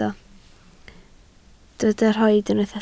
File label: "rhoid", Welsh